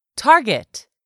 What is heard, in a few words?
"target", English; 3000 words